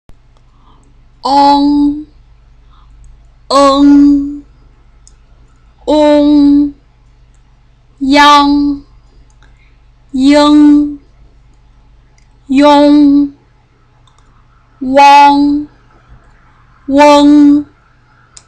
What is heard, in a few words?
"ang", pinyin, chinese